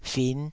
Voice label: "fin5", taiwanese syllables